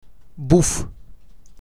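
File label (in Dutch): "Boef", Dutch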